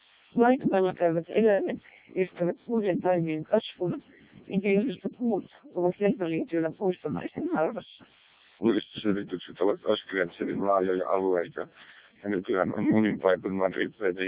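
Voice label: Finnish speech, CODEC2 vocoder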